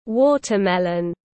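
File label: "Watermelon", English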